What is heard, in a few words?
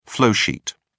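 "flowsheet", British English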